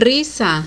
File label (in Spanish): "risa", Spanish